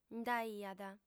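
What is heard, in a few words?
"nd'aiat", Sardinian, female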